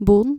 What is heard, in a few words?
"boon" dutch